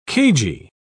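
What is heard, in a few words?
"cagy", English, British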